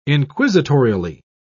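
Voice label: "inquisitorially", British English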